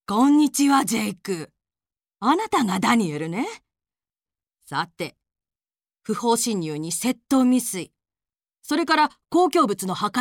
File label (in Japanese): 中年判事女性